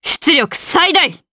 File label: Vox Skill, jp; Valance; kingsraid